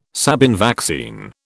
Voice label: "Sabin vaccine", British